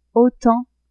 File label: "autant", French; adverbs